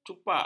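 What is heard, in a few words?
"cupak", Malay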